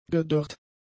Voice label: "gedörrt", German; adjectives

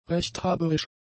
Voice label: "rechthaberisch", German; adjectives

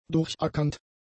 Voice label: "durchackernd", German; adjectives

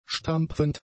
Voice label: "stampfend", German; adjectives

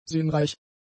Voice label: "sinnreich", german; adjectives

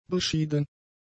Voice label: "beschieden", German; adjectives

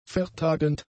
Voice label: "vertagend", German; adjectives

